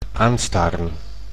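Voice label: "aanstaren", Dutch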